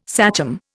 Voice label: "sachem", Indian

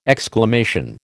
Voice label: "exclamation", American

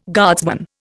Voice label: "guardsman", Indian

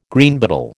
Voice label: "Greenbottle", American

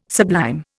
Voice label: "sublime", Indian

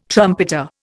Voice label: "trumpeter", Indian

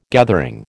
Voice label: "gathering", American

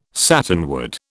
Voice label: "satinwood", British

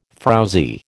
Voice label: "frowzy", American

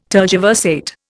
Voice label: "tergiversate", Indian

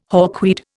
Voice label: "hawkweed", Indian

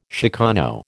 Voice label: "chicano", American

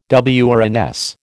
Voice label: "WRNS", American

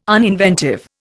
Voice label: "uninventive", Indian

